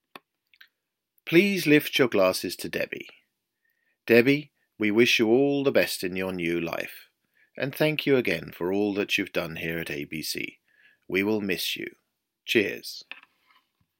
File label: toast speech